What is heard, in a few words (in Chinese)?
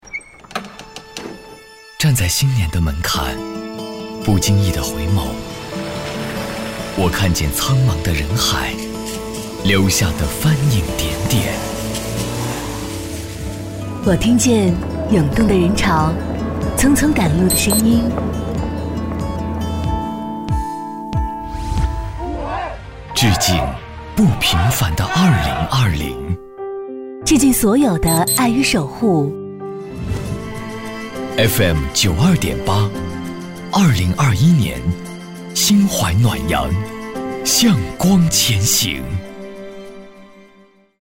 女 电台 版头新年元旦